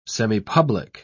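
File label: "semipublic", English, British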